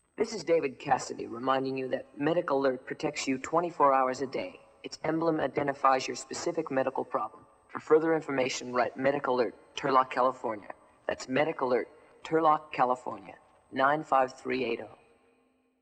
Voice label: Medic Alert Radio Spot